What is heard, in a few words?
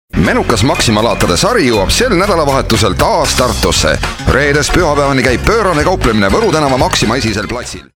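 Estonian male commercial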